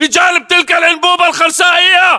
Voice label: landmark near concrete pipe, english battlechatter